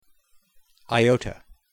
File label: greek iota